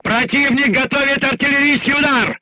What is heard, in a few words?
voice message art shooting warning, crew tanks russian